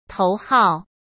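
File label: "头号", Mandarin Chinese; chinese flashcards; hsk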